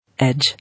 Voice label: "Edge", English